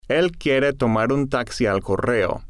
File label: "el quiere tomar un taxi al correo", Spanish